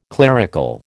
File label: "clerical", American